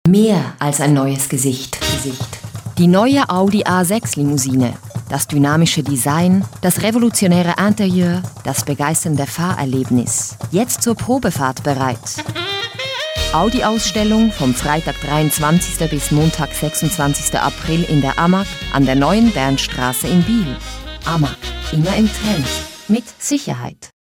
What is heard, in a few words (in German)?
Audi Werbespot Deutsch